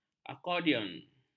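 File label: "akordion", Malay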